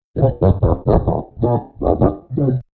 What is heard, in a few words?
murmur; Toontown Online